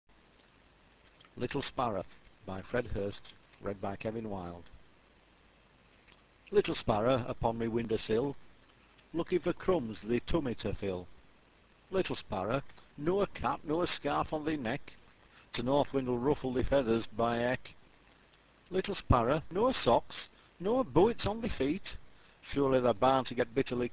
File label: little sparrer, yorkshire dialect